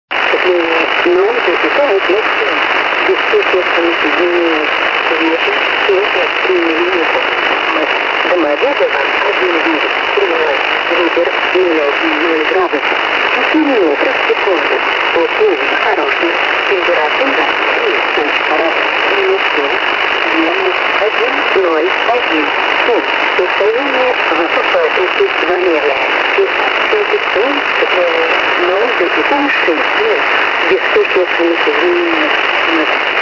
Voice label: maybe atis in russia